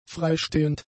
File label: "freistehend", German; adjectives